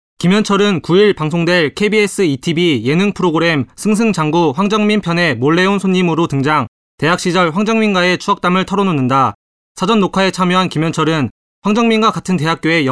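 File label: korean male narration; voice demos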